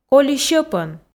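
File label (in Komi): коли сьӧпӧн, Komi, female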